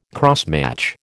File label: "crossmatch", American